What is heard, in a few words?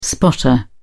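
"spotter", British English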